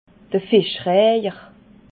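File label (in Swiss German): "fischreiher", Alsatian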